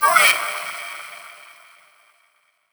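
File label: effects talk, Funky Vocals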